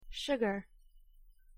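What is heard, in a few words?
"sugar", English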